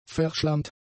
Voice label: "verschlammt", German; adjectives